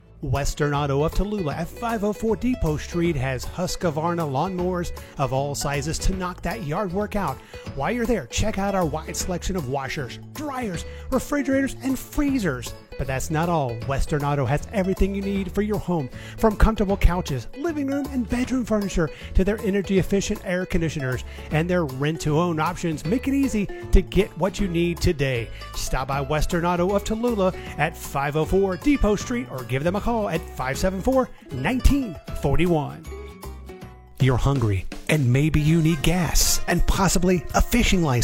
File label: Radio Spot